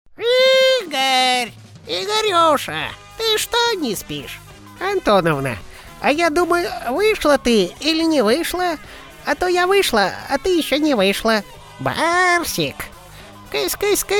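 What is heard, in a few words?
Parod Babka, parody old woman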